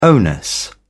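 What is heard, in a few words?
"onus", British English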